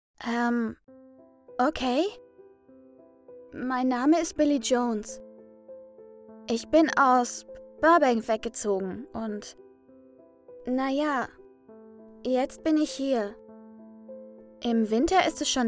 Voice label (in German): Demo Kinder